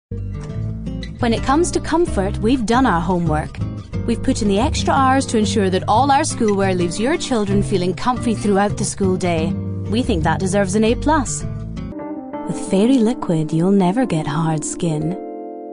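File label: Scottish English, female, voice over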